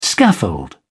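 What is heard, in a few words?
"scaffold", British English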